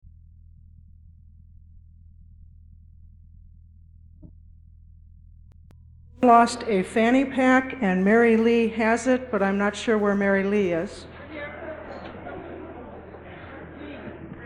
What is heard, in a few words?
Closing Announcements